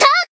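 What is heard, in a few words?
attack, Japanese; komachi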